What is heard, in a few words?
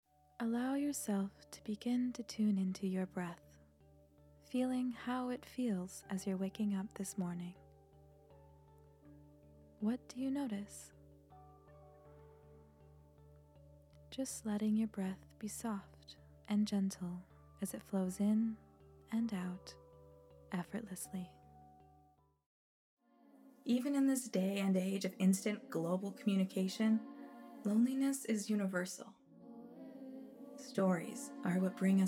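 Voice Over Narration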